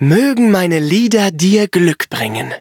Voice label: loading, de; Cookie Run